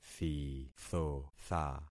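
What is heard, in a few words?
"thithotha", concatenated syllables; Affixing experiment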